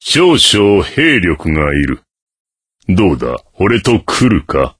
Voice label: voice, dubbing